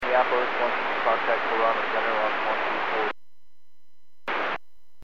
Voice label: Aviation 121.5 Emergency

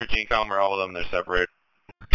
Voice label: Police Sheriff Dispatch

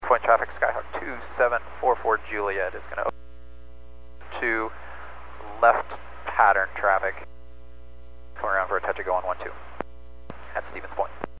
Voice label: Aviation 122.7; KSTE

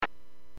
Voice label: Aviation 122.7; KSTE

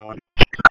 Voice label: Police Sheriff Dispatch

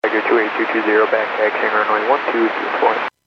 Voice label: Airports 122.7, Radio; KSTE

